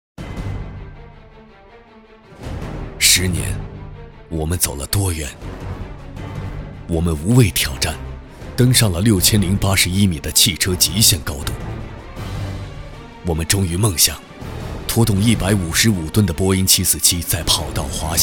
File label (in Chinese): 男 广告 沉稳 磁性; 大众途锐TVC